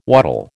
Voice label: "waddle", American